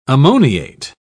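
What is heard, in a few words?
"ammoniate", English, British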